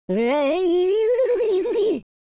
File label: scared, Welsh; speech